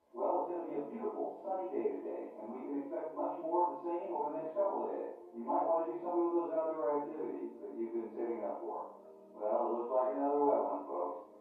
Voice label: Tv Male Weather Repo, news